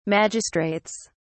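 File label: "magistrates", British English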